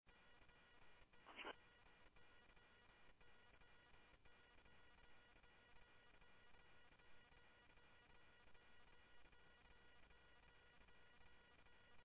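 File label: police department, scanner audio trunk; ThompsonPeakHigh; SCTSDLE PD - PD2 ScottsdalePolice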